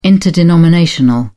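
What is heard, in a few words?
"interdenominational", English, British English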